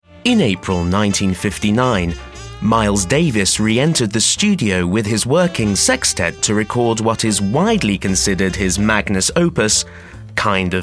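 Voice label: British English, narration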